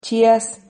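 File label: "chias", Spanish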